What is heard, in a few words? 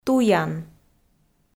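"туян", Komi, female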